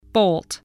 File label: "bolt", spelling